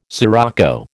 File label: "scirocco", American